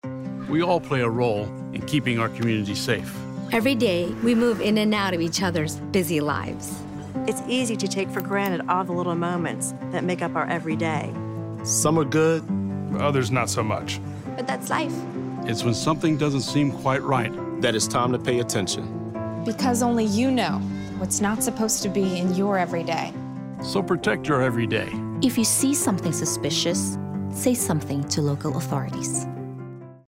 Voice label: English